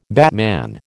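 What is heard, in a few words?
"batman", American English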